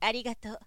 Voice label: "arigato", zyosei

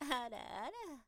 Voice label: zyosei araara

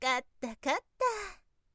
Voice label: zyosei kattakatta

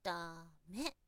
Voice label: zyosei dame

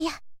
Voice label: "ya", syozyo

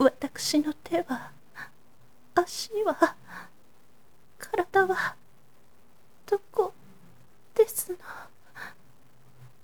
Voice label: zyosei watakusinotewa

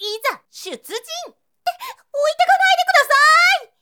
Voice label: zyosei izasyutujin

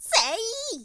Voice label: zyosei sei

